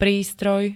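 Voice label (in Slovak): "prístroj", Slovak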